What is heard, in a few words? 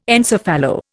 "encephalo", Indian